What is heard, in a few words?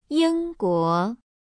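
"Yingguo", Chinese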